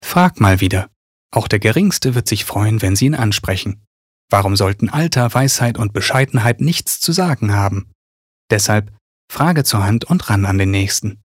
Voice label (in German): Werbung Sanft